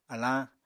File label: "alañ", Apatani